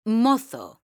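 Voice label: "mozo", Spanish; mekanta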